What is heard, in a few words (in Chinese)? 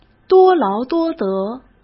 "多劳多得", Chinese; hsk